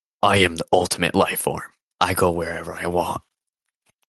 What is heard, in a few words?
voice message, male